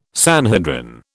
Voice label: "Sanhedrin", British

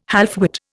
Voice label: "halfwit", Indian

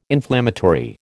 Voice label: "inflammatory", American

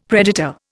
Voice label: "predator", Indian; Dictionary

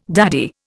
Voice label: "daddy", Indian

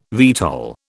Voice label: "VTOL", British

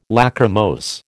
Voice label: "Lachrymose", American

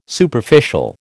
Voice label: "Superficial", American

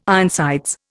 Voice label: "Ironsides", Indian

